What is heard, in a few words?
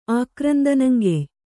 "ಆಕ್ರಂದನಂಗೆಯ್", kannada